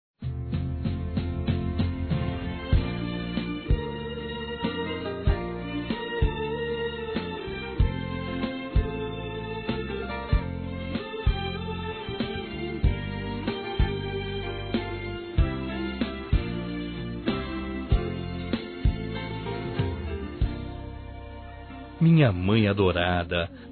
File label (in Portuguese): Mensagem Mãe Masculino, Gospel